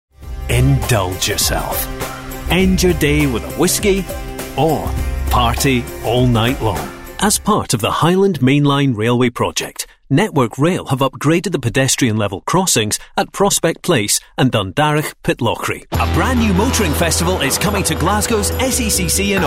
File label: scottish showreel